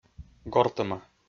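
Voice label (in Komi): "гортӧма", Komi, male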